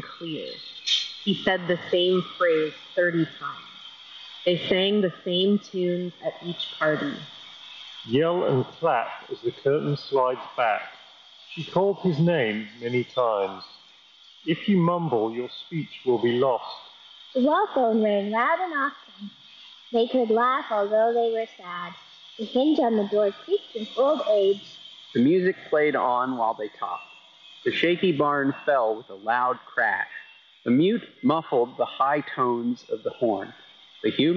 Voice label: Bose Quietcomfort Ultra Earbuds Street microphone sample